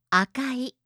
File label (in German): "akai", japanische maedchennamen